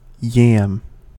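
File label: "yam", US English; pronunciations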